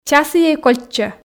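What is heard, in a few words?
часiӧй кольччӧ, Komi, female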